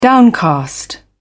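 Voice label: "downcast", British English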